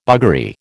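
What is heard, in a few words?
"buggery", American English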